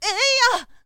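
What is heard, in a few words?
"eiya", boy; shonen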